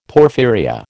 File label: "porphyria", American